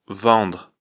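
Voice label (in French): "vendre", French; afrikalan; lecture fr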